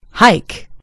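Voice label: "hike", British English; gcompris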